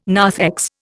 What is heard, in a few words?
"narthex", Indian